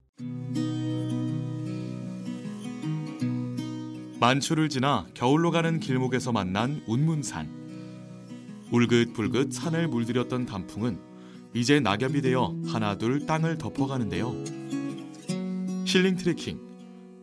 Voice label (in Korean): 나레이션 힐링트레킹여행가이드